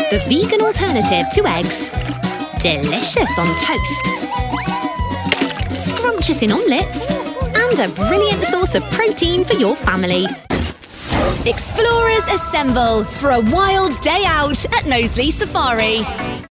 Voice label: child friendly narration

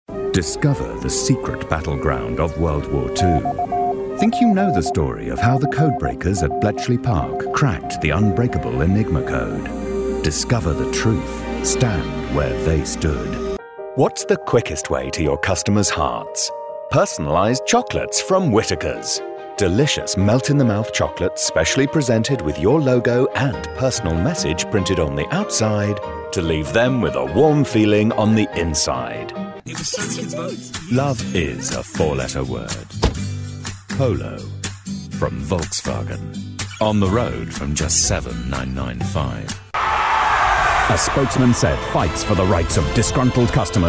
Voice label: commercials

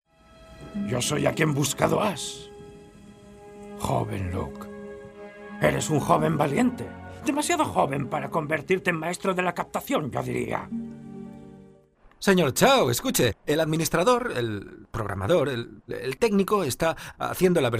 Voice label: spanish male spanish character

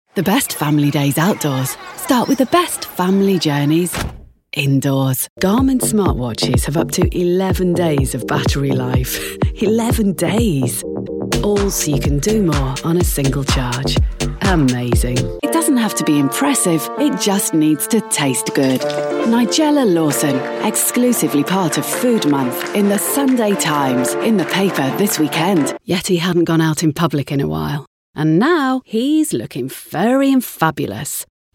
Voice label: commercial

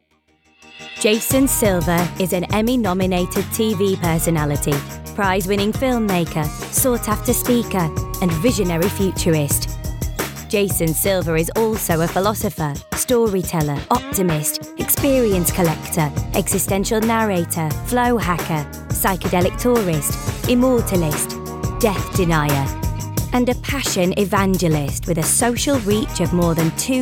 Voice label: promo; jason silva

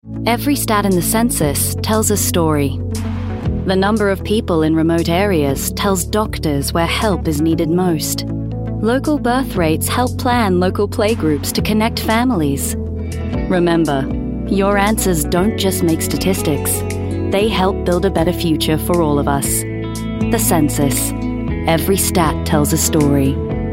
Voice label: australian voice commercial

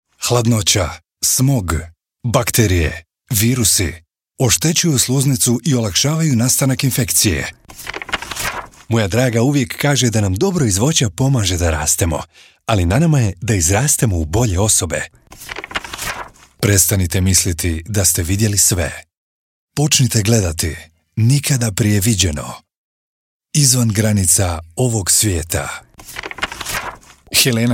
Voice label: Bosnian voice over montage

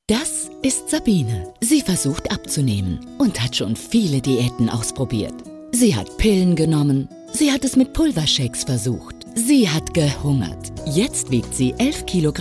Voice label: commercial

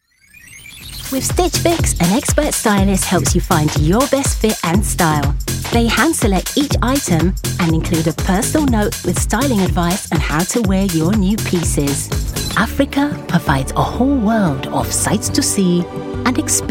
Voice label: london voice commercial

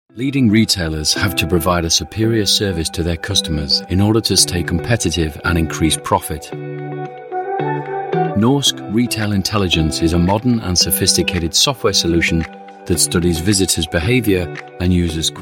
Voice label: corporate

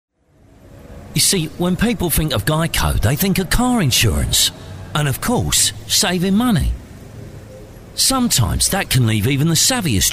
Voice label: cockney

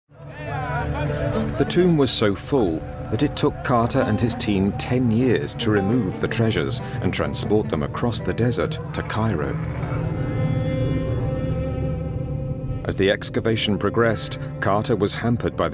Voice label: narration

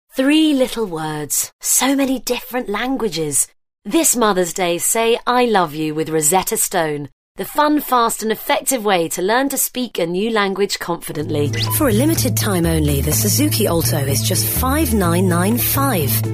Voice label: commercial

